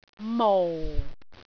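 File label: "mou4", Cantonese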